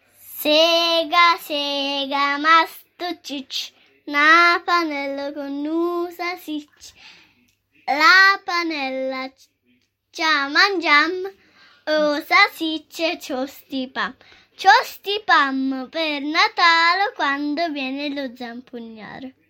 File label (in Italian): dialetto ReggioEmilia; Notte dei Racconti 2020